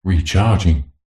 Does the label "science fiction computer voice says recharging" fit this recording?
Yes